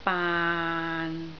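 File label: "baan3", Cantonese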